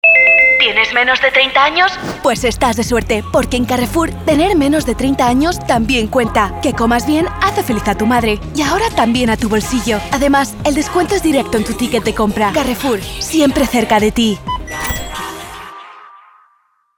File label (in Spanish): SPA Castilian Humoristico Alegre; Carrefour